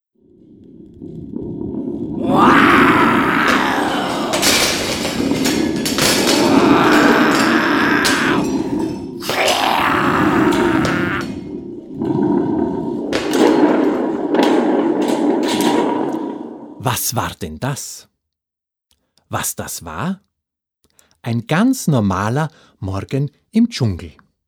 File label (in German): Beispiel Kinderhoerbuch Tiger; Beispiel Tierstimme-Tiger-Hörbuch für Kinder; in diesem Beispiel ist auch das sounddesign von mir; Voice Design